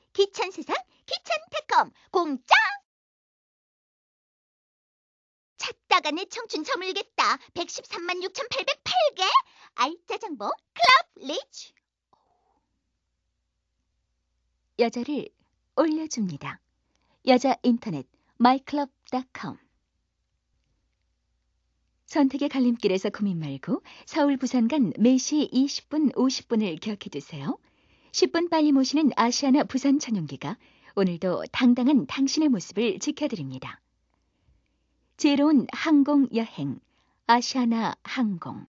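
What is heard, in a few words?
Korean female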